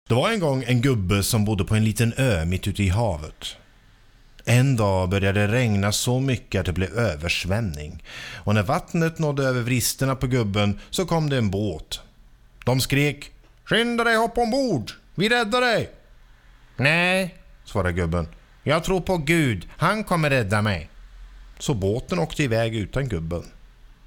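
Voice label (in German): lyrik Swedish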